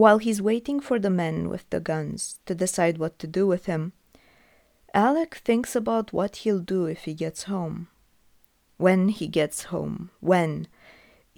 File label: bee voice close